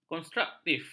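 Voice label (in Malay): "konstruktif", Malay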